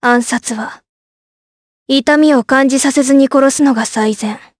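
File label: vox dialogue, voices, Japanese; Tanya; kingsraid audio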